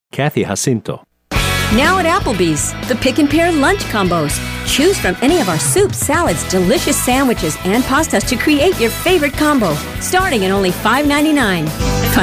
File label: VO DEMOS - Women